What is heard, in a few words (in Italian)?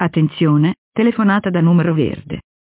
Annuncio Telefonata da Numero Verde